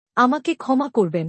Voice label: "excuse me", bangla; Basic Greetings and Courtesy

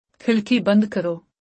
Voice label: Close the window, Urdu; Simple Commands

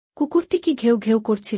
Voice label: Was the dog barking?-Bangla; Mixed Sentences

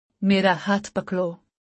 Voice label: Hold my hand. - Urdu; Basic Greetings and Courtesy

